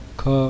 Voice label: "kha", consonants of the ĐevaNāgarī script; V'yanjan/s (Consonants)